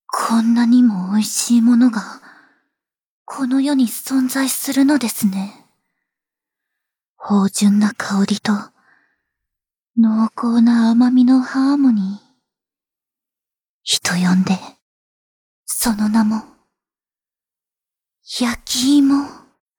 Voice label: Voice Sample, jp